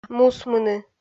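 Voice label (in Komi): "мусмыны", Komi, female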